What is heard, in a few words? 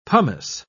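"pomace", British English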